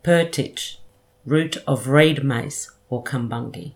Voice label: "purtity" root

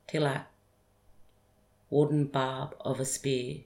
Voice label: "tilak" wooden barb of a spear

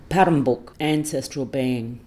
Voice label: "parrembuk" ancestral being

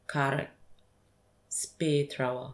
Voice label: "karrək" spear thrower

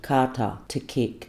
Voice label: "karta" to kick

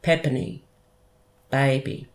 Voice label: "pepiny" baby

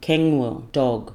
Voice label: "keng-wil" dog

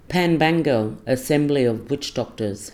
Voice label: "pan-pangal" assembly of witchdoctors

